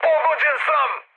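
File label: Serbian aircraft damaged mood high, speech; War Thunder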